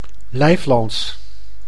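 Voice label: "Lijflands", Dutch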